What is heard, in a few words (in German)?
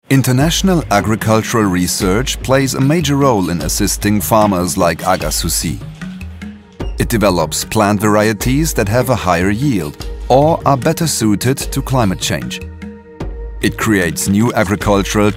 imagefilm englisch; bmz; welt ohne hunger